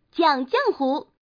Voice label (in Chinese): jiangjianghu, changsha woman; mahjongjinghai